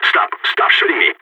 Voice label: friendly fire, balkan; vo